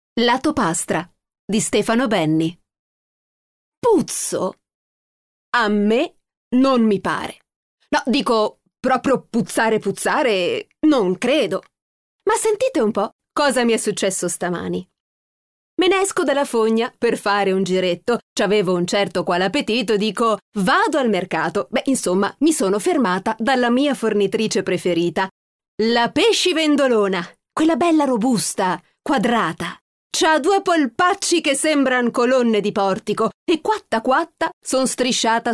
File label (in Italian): audiolibri